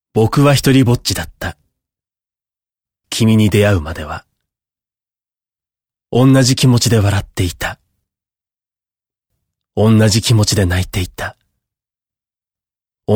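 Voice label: narration male natural; otituita funiki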